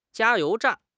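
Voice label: "jia1 you2 zhan4", Chinese; HSK4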